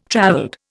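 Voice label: "travelled", Indian English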